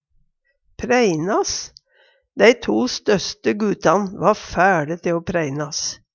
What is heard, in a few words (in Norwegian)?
preinas, Numedalsmål; Dei to støsste gutan va fæLe te o preinas.; Verb